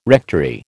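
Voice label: "rectory", American English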